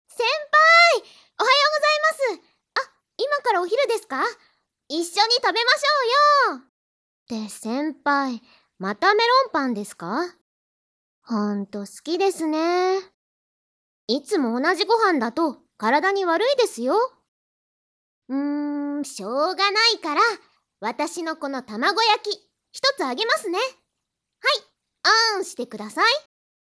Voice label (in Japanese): 明るい後輩女子